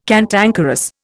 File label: "cantankerous", Indian